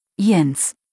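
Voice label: "Jens", German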